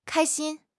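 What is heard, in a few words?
"kai1 xin1", Chinese; HSK4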